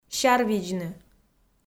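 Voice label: "сярвидзны" Komi, female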